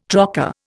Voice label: "trocar", Indian